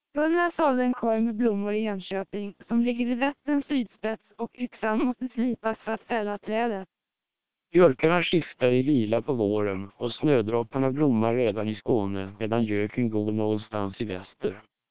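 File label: SPR 1200 short, Swedish speech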